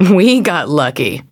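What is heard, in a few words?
level up, English; cookie run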